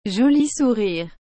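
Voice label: "joli sourire", French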